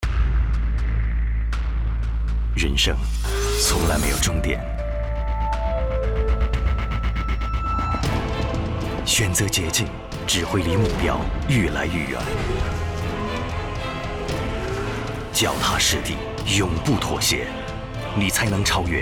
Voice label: 男 汽车广告, 国语男声配音; 瑞麒G3

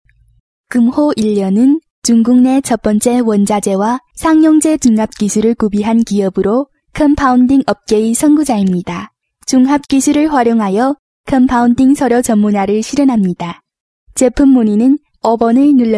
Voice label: 韩语样音; 锦湖日丽; 外语

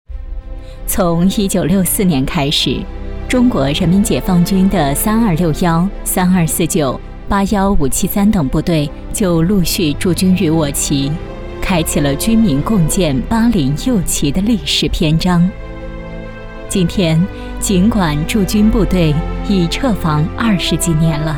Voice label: 女, 专题; 中国人民解放军

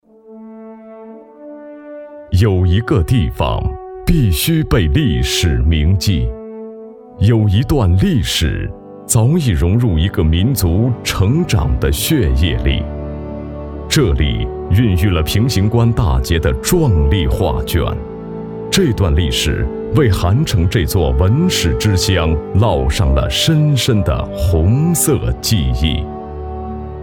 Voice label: 男, 专题; 红色记忆

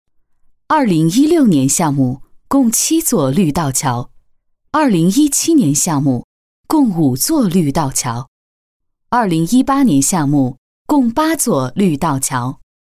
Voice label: 女,专题,工程汇报

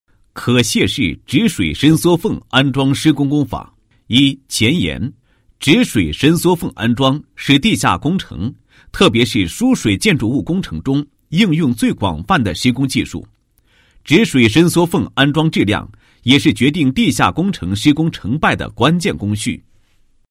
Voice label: 男 解说词 可卸式止水伸缩缝安装工法讲解

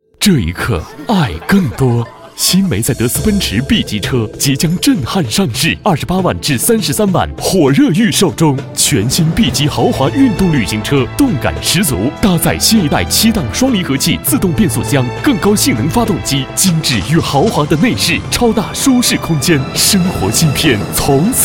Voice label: 男 广告 沉稳大气, 国语男声配音; 奔驰

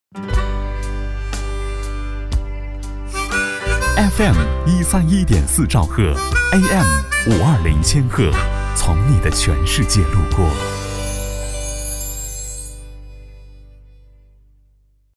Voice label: 男 电台台宣; 从你的全世界路过

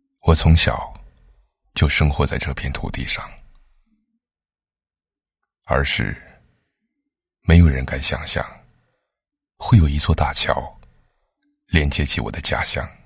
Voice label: 男 广告 测量师内心独白配音, 国语男声配音

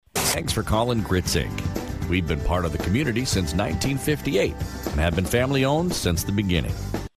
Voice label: 外籍英男 低沉

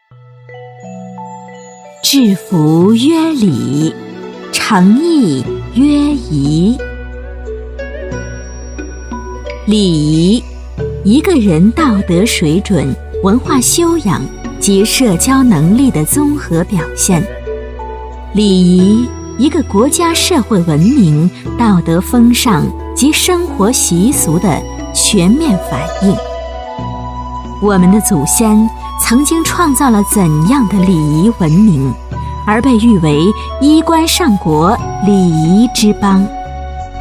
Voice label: 女 礼仪 介绍

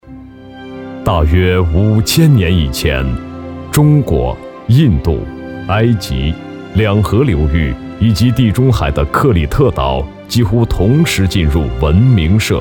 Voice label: 男-记录

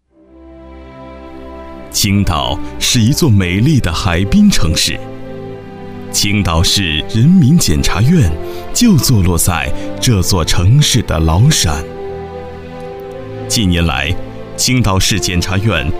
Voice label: 男 专题 检察院, 浑厚大气; 青岛检察院

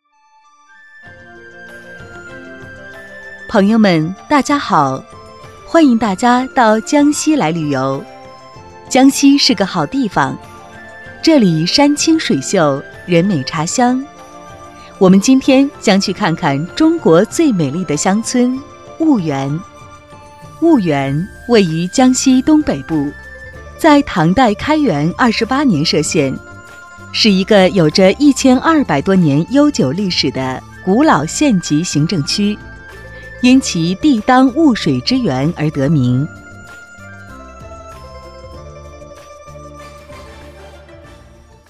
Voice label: 女 导游解说词

